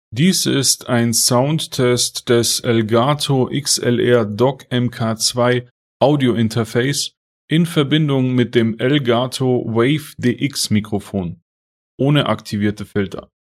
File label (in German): Elgato XLR DOCK MK.2 WAVE DX ohne Filter